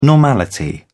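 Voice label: "normality", British English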